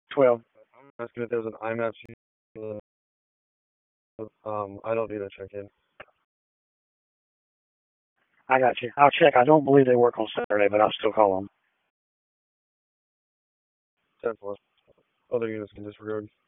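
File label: Police Dispatch; Raleigh Police Southwest District Disp; Wake; p25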